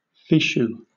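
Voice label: "fichu", British English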